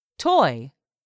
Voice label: "toy", English; 3000 words

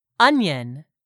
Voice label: "onion", English; 3000 words

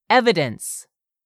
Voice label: "evidence", English; 3000 words

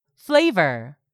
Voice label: "FLAVOR", english; 3000 words

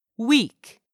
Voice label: "weak", English word; 3000 words

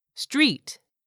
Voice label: "street", English; 3000 words

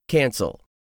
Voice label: "CANCEL", English; 3000 words

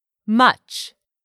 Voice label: "much", English; 3000 words

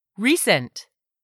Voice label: "recent", English; 3000 words

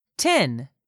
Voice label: "tin", English; 3000 words

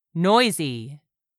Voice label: "noisy", English; 3000 words

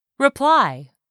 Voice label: "REPLY", english; 3000 words

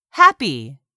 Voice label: "HAPPY", english; 3000 words

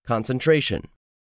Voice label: "concentration", English; 3000 words

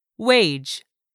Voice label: "WAGE", English; 3000 words

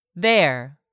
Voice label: "their", english; 3000 words

